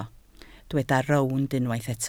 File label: "rownd", Welsh